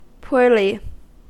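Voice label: "poorly", US English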